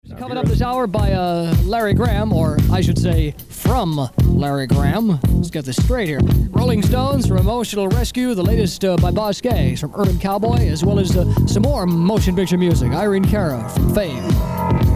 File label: air check; wtic